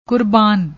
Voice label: "kurbaan", Punjabi